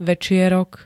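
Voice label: "večierok", Slovak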